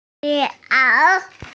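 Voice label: "mian2ao3", Mandarin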